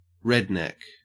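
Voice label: "redneck", Australian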